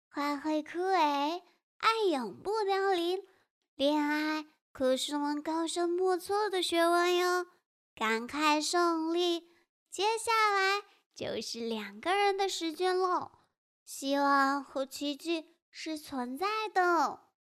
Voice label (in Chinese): 活泼少女音